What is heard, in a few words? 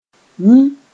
"yĩ", Mooré